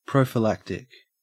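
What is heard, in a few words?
"prophylactic", Australian English; pronunciations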